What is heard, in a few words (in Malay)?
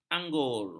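"anggur", Malay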